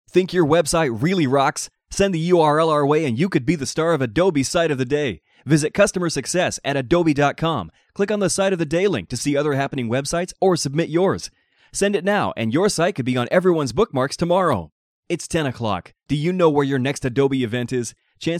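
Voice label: US, commercial, voice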